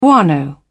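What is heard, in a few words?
"guano", British English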